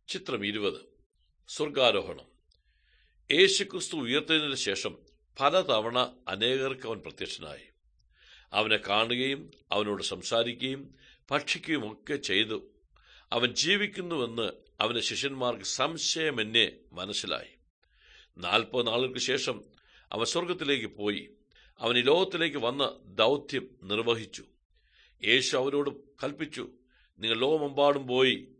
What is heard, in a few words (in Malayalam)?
good news malayalam, drama